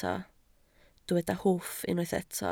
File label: "hwff", Welsh